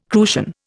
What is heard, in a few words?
"crucian", Indian